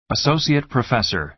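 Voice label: "associate professor", American English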